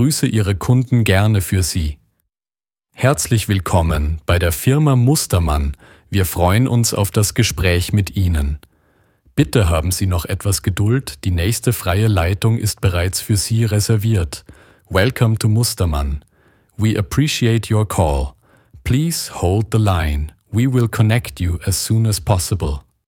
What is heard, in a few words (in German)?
KI-Stimme